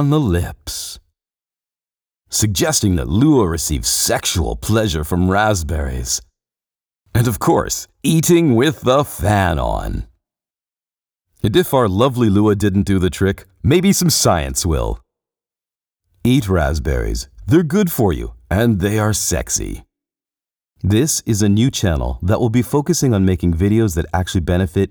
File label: Raspberry commercial